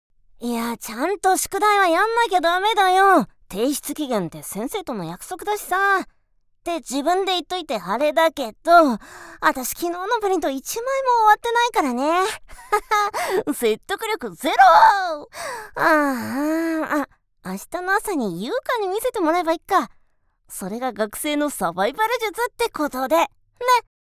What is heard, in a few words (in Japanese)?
お調子者の女子学生 セリフ; VOICE SAMPLE